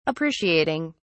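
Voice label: "appreciating", British English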